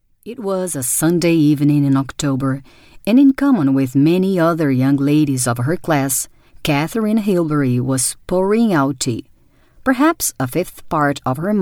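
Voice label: female voice, English accent, Portuguese; voice demos; GoLocalise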